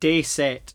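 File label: "dayset", Cromarty Fishertoun dialect; Word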